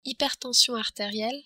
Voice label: "hypertension", French